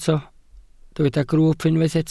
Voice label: "grwwp", Welsh